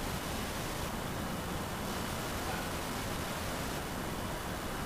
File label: male whisper